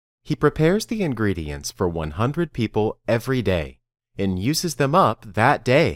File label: Dictation